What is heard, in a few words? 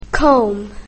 "comb", English; grade1; basic sounds 1